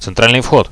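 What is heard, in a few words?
central entrance, Russian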